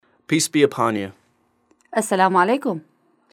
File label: iraqi, Peace be upon you es-salamu 'aley-kum السلام عليكم